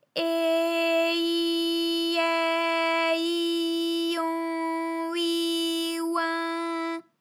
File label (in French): eh i ai i on i oin, vocal library, FRA